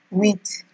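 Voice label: "wit", Nigerian Pidgin, female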